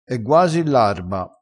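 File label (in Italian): "guasi", crespinese dialettale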